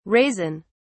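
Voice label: "raisin (s)", British English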